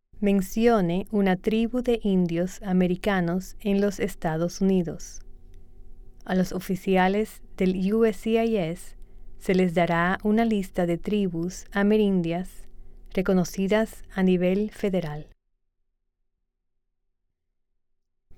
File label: Spanish